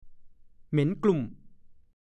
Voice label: "เหม็นกลุ้ม", ภาษาโคราช; เหม็นเน่าอย่างแรง

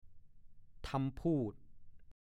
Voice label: "ทำพูด", ภาษาโคราช

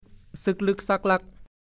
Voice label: "ซึ่กลึ่กซั่กลั่ก", ภาษาโคราช; 1. ดูคำว่า ซึ่กลึ่ก

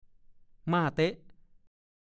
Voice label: "ม่าเต๊ะ", ภาษาโคราช; (สำนวน) เล่นม้าเสีย

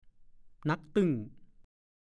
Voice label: "หนั๊กตึ้ง", ภาษาโคราช; หนักมาก หนักอย่างเต็มกำลัง หนักเต็มแอ้ด

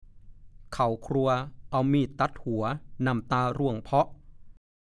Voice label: "เข่าครัวเอามีดตั๊ดหัวน่ำตาร่วงเพ้าะ", ภาษาโคราช; เข่าครัวเอามีดตั๊ดหัวน่ำตาร่วงเพ้าะ / 1. (ปริศนาคำทาย) หัวหอม

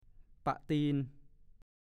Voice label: "ปะตีน", ภาษาโคราช; ทิศเหนือ ปลายตีน ก็เรียก

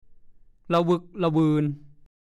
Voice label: "ล่ะวึ่กล่ะวึน", ภาษาโคราช; เดินขวักไขว่ ออกเสียงเป็น ล่ะวึ่กล่ะวึ๋น ก็มี

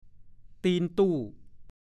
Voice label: "ตีนตู้", ภาษาโคราช; ภาชนะคล้ายถ้วย สำหรับใส่น้ำรองขาตู้กับข้าวกันมดขึ้น; พจนานุกรมภาษาโคราช

